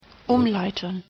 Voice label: "umleiten", de